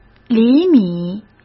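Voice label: "厘米", Mandarin Chinese; hsk; chinese-flashcards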